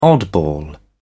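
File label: "oddball", English, British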